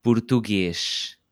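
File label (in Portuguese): "português", português europeu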